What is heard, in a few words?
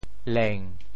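"leng7", Teochew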